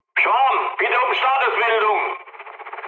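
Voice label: German chat, speech; War Thunder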